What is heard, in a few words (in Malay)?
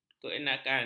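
"keenakan", Malay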